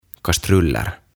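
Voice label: "Kastruller" Swedish; Kattilat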